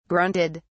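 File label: "grunted", British English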